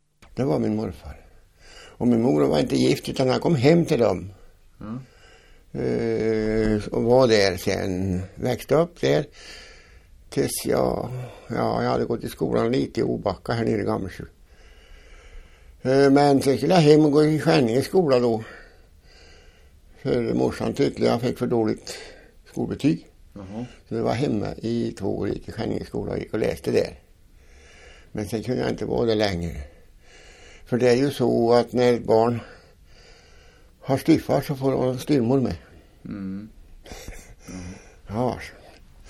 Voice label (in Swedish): dialekter; Folkminnen